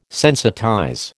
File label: "sensitize", American